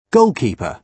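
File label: "goalkeeper", British English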